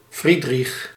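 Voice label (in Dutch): "Friedrich", Dutch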